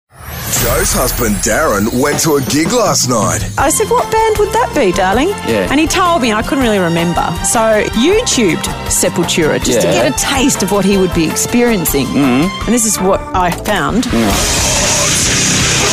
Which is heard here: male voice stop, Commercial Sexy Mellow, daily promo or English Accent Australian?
daily promo